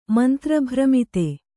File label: "ಮಂತ್ರಭ್ರಮಿತ", kannada